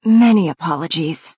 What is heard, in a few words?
Breton female "sorry"